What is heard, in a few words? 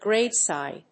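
"graveside", English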